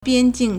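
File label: "biānjìng", Chinese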